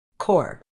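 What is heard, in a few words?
"core", British English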